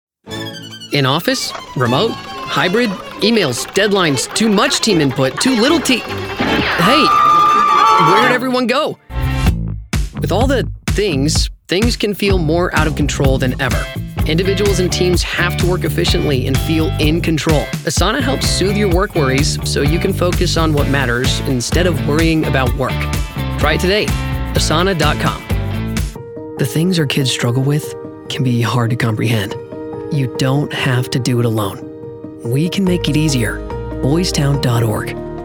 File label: Commercial